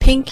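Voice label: pink, Serbian; gcompris; colors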